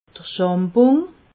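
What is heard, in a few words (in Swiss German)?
"schambung", Alsatian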